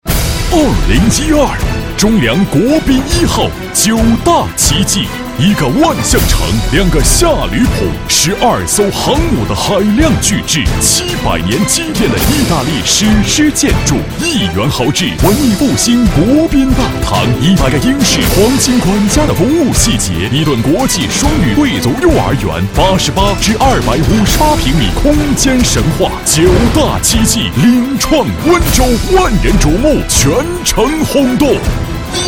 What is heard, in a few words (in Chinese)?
广告 地产 激情, 男; 中梁国宾一号